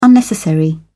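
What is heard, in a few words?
"unnecessary", British English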